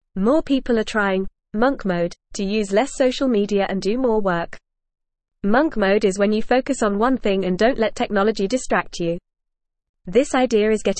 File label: English Lower Intermediate FAST Reading; Monk Mode Using Less Social Media Doing More Work